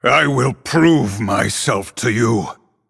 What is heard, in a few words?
Male Night Elf Start; Tyrande